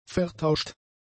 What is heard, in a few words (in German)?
"vertauscht", German; adjectives